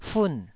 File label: "fun4", taiwanese syllables